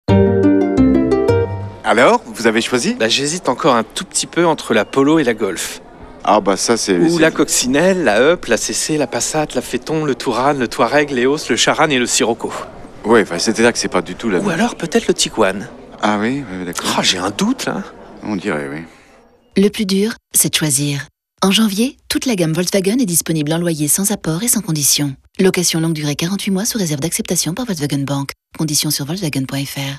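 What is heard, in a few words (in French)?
spot, spots radio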